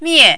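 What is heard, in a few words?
"mie", Mandarin, fourth tone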